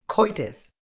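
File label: "coitus", British English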